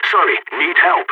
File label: help, balkan; vo